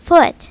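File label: "foot", English; My Talking Dictionary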